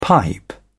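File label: "pipe down", British English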